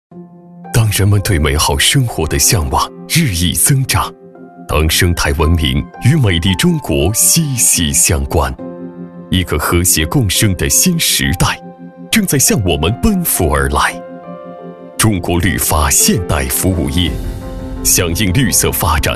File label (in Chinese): 宣传片-男34; 中国绿发现代服务业低碳概念